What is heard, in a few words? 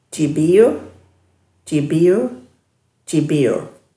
"djibVr", Dhurga